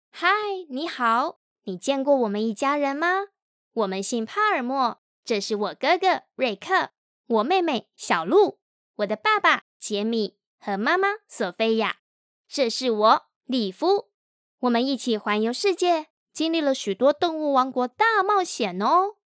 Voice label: taiwanese animation; Taiwanese Mandarin speaker